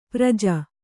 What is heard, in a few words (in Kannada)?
"ಪರಿಜು", Kannada